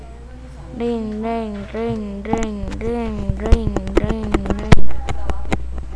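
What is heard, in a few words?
"ring", spoken word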